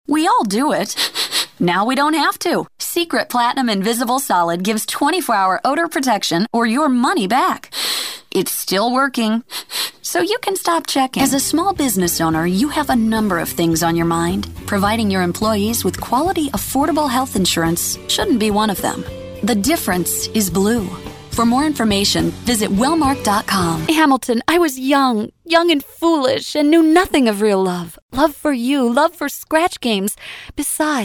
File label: Women Commercial